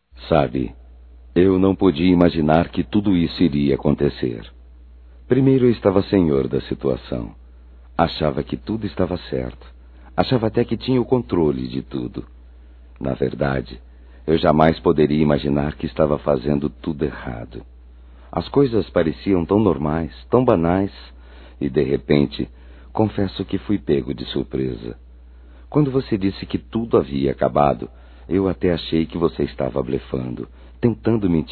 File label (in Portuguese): RECONCILIAÇÃO, masc